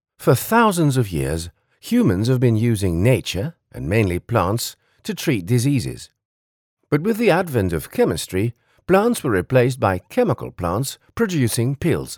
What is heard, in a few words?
English corporate